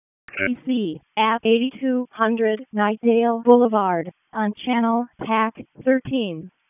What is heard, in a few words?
WC EMS ALERT, p25; wake